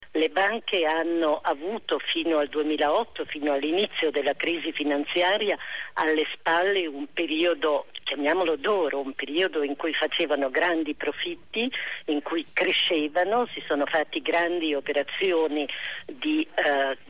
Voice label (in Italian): Radio; Concordanze; Radio anchio